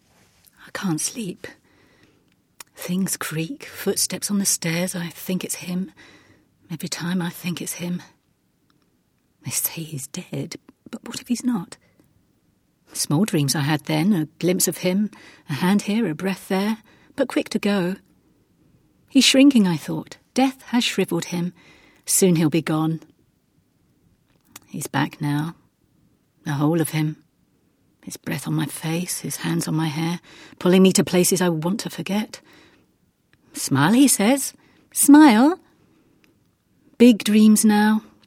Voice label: Voiceover